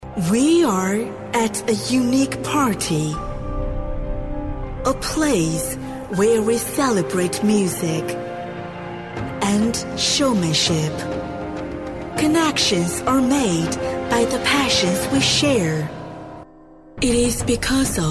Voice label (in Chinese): 英文; 金华